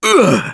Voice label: Hurt Minor, russian; Gambler

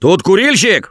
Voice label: Warn Smoker, russian; Gambler

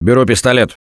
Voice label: Take Pistol, russian; Gambler

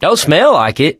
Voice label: in water, voice, mechanic, survivor

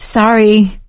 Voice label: sorry, survivor voice; Left 4 Dead 2; producer

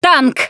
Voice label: warn tank, russian, Survivor Voice Producer

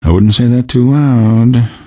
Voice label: answer, male; Garry's Mod; hl2

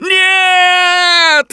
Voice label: grabbed by smoker, russian, Survivor Voice; Gambler

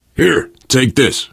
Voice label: alert give item, survivor voice biker; Left 4 Dead 2

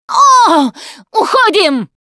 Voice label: gooed by spitter, russian; Producer

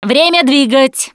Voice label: Move On, Survivor Voice; russian; Producer

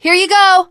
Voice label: throw items, teen girl; Left 4 Dead 2

